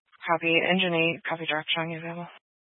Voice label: Fire Department Dispatch, scanner